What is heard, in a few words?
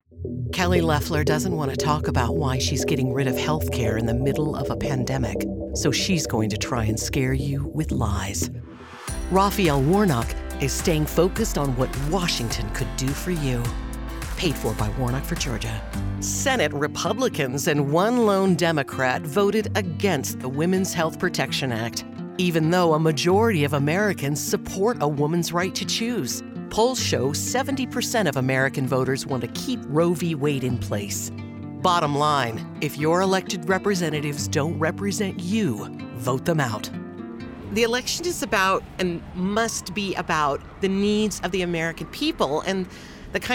POLITICAL, voiceover